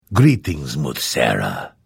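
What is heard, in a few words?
Hello, Vo; Morrowind GOTY GOG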